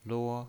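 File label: "luo", pinyin, Mandarin Chinese, first tone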